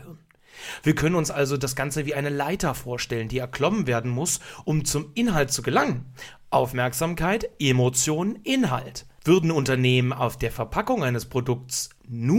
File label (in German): werbung